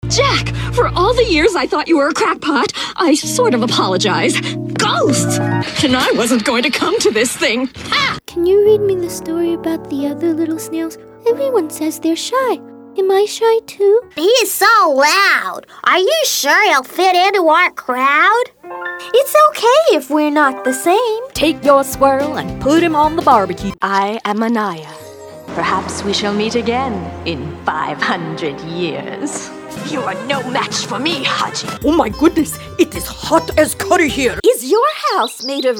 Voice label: Animation Voiceover Reel (27 Voices)